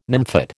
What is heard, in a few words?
"nymphet", American